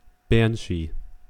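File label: "banshee", US English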